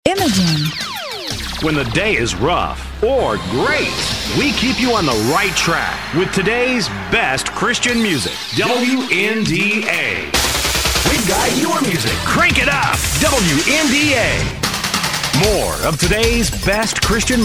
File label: imaging